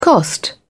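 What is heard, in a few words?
"cost", British English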